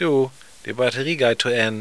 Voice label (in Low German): batterie geit to en, plattdeutsch